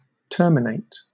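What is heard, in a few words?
"terminate", British English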